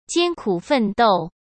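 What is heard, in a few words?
"艰苦奋斗", Mandarin Chinese; chinese flashcards; hsk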